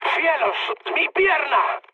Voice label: damaged pilot, mood med, speech; War Thunder